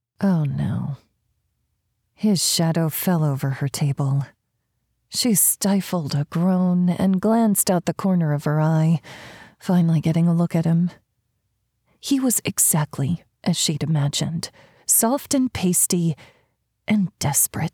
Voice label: Sci Fi, Male / Female, 3rd person; Randy space bar troll meets a hybrid